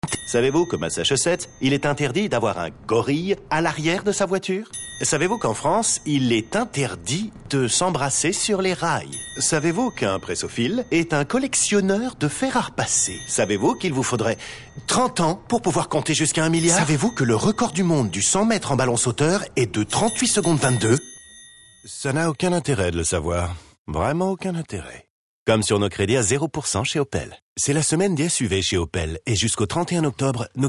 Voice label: RADIO; OPEL Sans interets MEDLEY

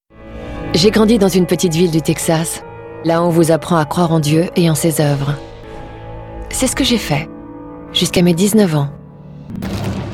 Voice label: NARRATIONS

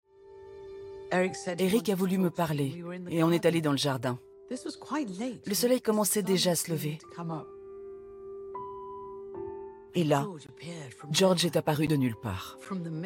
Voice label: VoiceOver

